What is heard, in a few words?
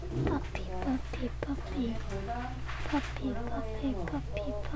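"puppy", spoken word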